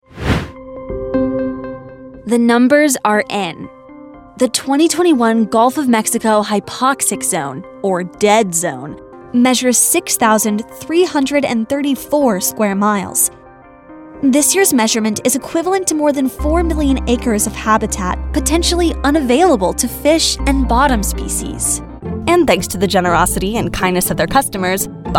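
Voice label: Narration Teen YA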